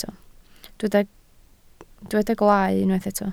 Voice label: "gwaalau", Welsh